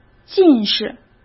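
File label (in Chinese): "近视", Mandarin Chinese; hsk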